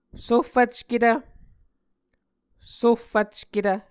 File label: "sohfvcketv", Muscogee